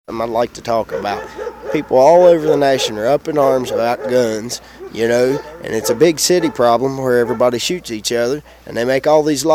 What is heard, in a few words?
Mountain West Virginia WM age 25; rhotic, or "r" pronouncing American Southern dialects